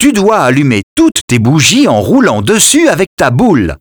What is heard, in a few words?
hamster welcome vo, French